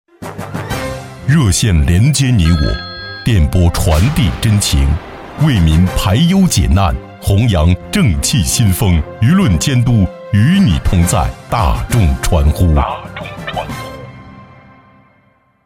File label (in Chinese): 男 片花广告 磁性质感; 男B28-大气舒缓; 质感磁性; 《大众传呼》